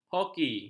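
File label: "hoki", Malay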